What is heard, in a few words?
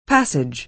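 "passage", American English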